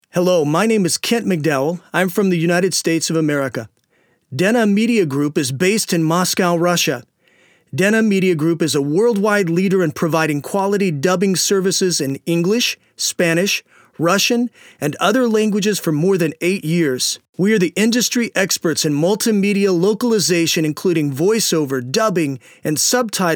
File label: Kent, US